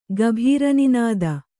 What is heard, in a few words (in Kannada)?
"ಗಭೀರನಿನದ", Kannada